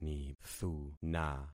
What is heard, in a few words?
"nithuna", concat syllables; Affixing experiment